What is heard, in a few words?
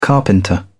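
"carpenter", English, British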